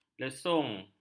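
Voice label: "lesung", Malay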